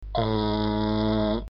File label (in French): o ouvert nasal